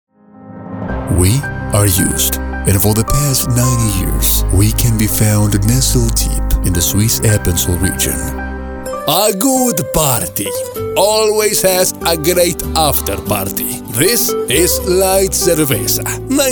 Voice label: English